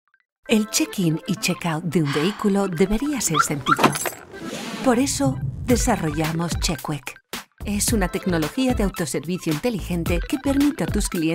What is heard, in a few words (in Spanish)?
spanish others